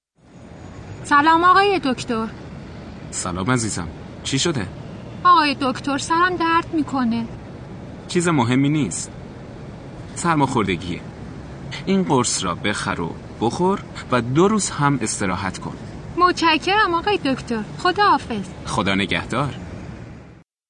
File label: Dialogue lesson Farsi